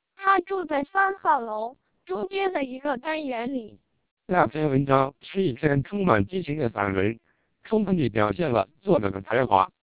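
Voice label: TWELP 400 bps Vocoder, Chinese speech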